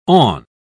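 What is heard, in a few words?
"awn", British English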